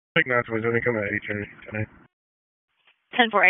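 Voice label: Sheriff's Office Dispatch; WSO DISP 1; wake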